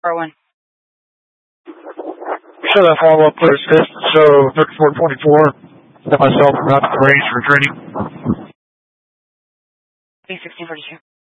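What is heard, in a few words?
Incident Tactical, scanner audio recording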